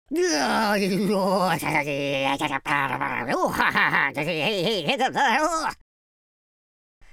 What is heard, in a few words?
angry, Super Villain; speech